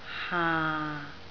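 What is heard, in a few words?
"haa6", Cantonese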